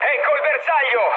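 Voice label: Italian near waypoint mood med, speech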